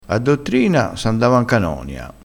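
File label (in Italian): "canonia", dialettale crespinese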